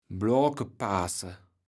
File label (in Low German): "Bloakepaaße", kaevels platt